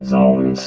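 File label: "zones", robot voice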